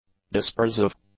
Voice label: "dispersive", English